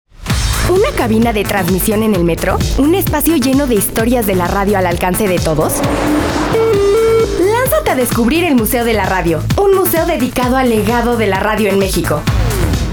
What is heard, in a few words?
SPOT; MUSEO DEL METRO